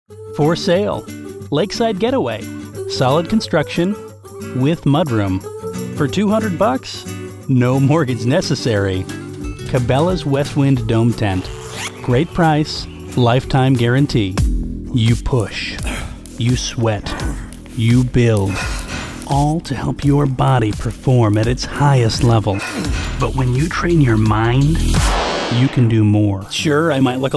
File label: Commercial